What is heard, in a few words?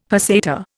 "peseta", Indian; Dictionary